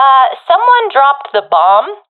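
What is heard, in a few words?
sees dropped bomb, professional female; vo; Counter Strike Model Pack; CS:GO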